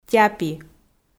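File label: "тяпи", Komi, female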